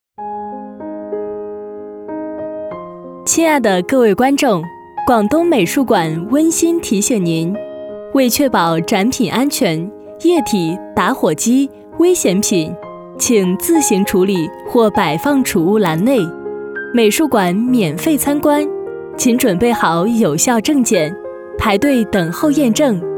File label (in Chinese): 广播温馨提示